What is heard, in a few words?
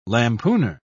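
"lampooner", British English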